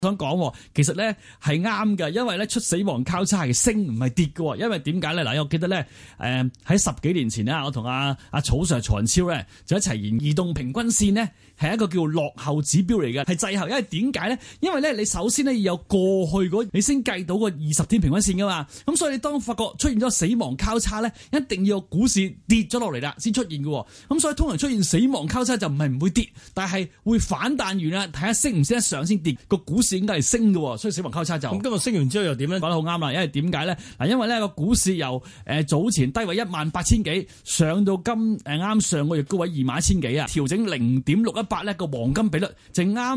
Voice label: Cantonese 1 minute